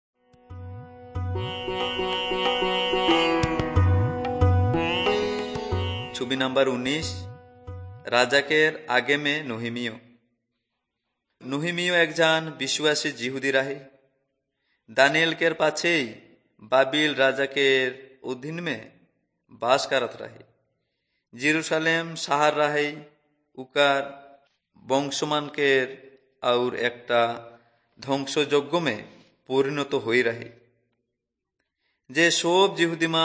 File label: urao, drama